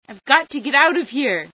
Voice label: got to get out, female

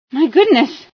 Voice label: female sci fear

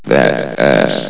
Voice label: chat

